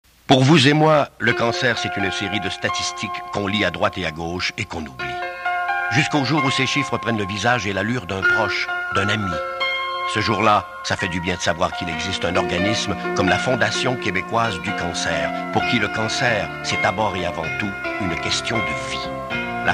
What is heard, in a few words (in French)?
demo de voix